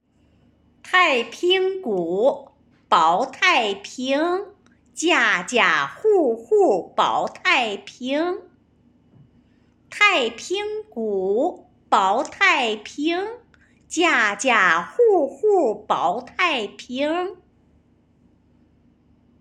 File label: Taiping Drum, The Slang spoken by Tianjin Dial; The Musical Material of Taiping Drum; The Slang spoken by Tianjin Dialect